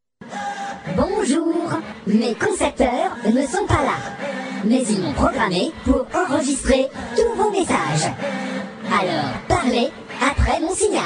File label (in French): robot, message repondeur